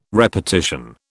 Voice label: "repartition", British

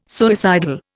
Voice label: "suicidal", Indian

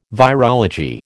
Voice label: "virology", American

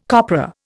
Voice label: "copra", Indian

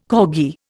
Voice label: "corgi", Indian

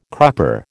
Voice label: "crupper", American

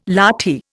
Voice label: "lathi", Indian

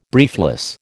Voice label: "briefless", American

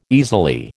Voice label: "easily", American

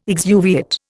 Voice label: "exuviate", Indian

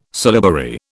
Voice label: "syllabary", British

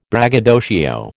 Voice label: "braggadocio", American

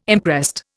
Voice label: "imprest", Indian

